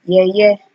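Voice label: "yeye", Nigerian Pidgin, female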